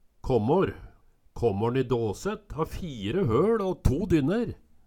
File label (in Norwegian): kammar, Numedalsmål